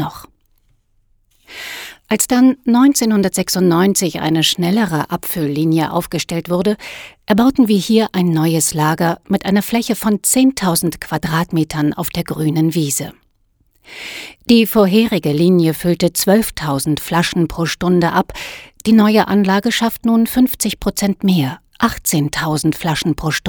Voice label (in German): Sprachaufnahme Audioguide Rotkäppchen